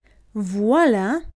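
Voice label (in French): "voilà", French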